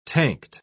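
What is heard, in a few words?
"tanked", British English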